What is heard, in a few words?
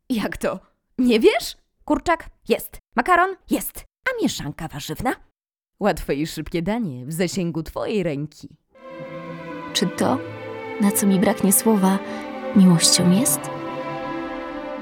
Polish F Commercial